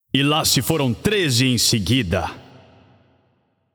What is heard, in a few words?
Announcer Spree 13 Kills; PTBR